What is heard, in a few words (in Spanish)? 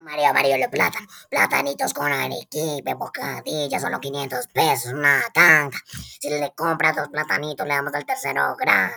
comercial amarillos los plátanos